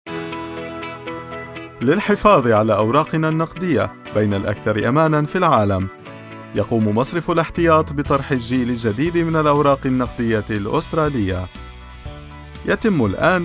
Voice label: radio ad translation arabic